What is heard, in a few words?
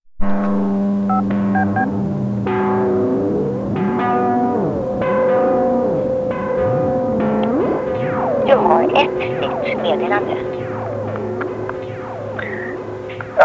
voicemail